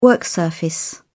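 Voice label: "work surface", British English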